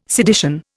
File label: "sedition", Indian